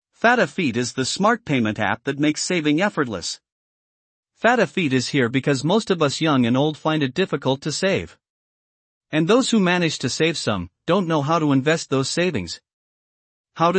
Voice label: us english explainer; Fatafeat